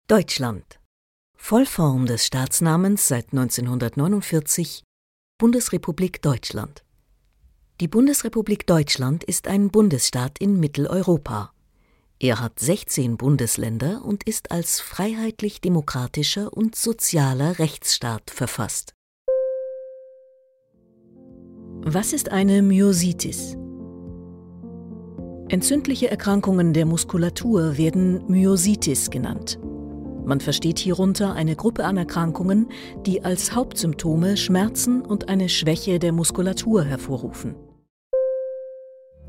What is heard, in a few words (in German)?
erklaerfilm mix; bundesrepublik deutschland; myositis; Muttersprache Deutsch